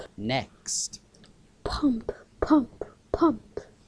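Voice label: "pump", spoken word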